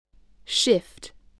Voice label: "shift", spelling